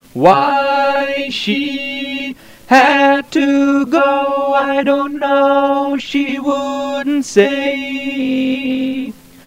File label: yes mono